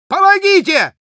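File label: Spy help me, russian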